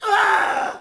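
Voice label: Axeman Die Vox; Civ4 warlords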